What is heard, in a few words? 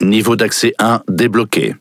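French Speech Access Level; World Of Warships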